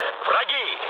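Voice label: Russian enemy detected mood low, speech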